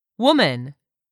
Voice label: "woman", English word; 3000 words